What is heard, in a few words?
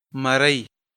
"maruy", Pashto